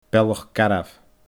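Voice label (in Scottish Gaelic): "Bealach Garbh", Scottish Gaelic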